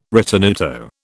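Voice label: "ritenuto", British English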